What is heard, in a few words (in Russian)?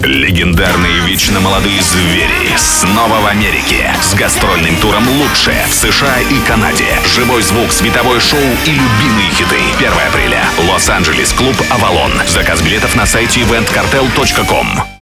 Диктор, аудиоролик